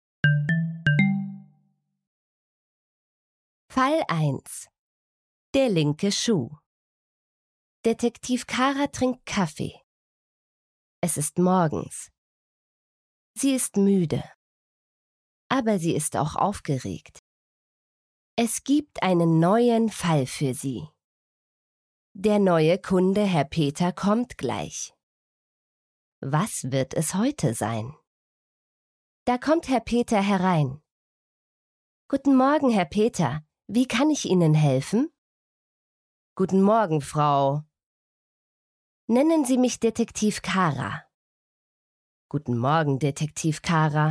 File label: German Easy Reader; Detective Kara